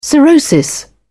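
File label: "cirrhosis", British English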